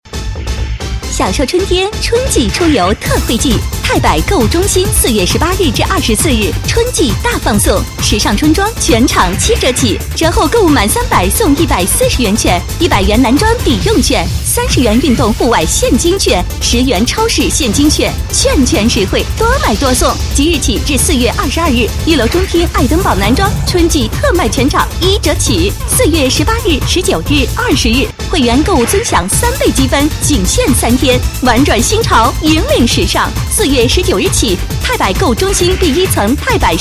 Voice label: 女 广告 购物中心, 国语女声配音; 太百购物中心

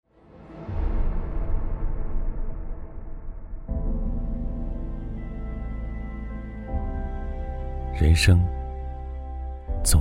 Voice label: 男 旁白 人生

